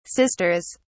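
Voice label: "sisters", British English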